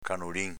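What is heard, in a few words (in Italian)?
"canolìn" Veneto dialect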